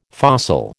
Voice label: "fossil", American